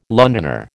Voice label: "Londoner", American

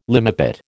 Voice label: "limepit", American

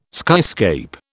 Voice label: "skyscape", British

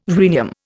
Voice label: "rhenium", Indian

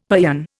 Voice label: "paeon", Indian; Dictionary

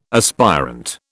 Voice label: "aspirant", British

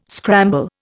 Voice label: "scramble", Indian

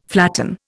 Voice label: "flatten", Indian

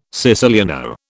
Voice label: "siciliano", British

